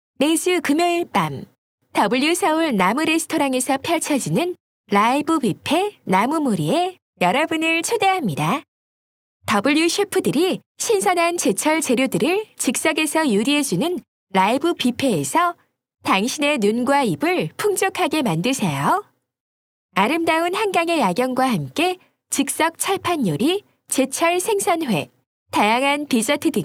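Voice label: Korean IVR hotel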